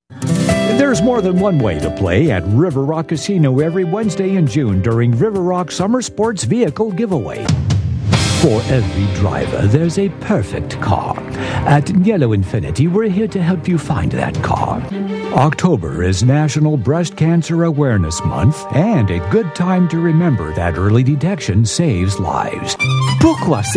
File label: voiceover